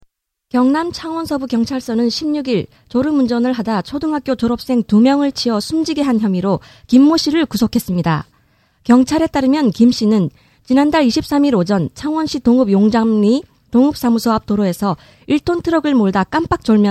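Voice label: news, korean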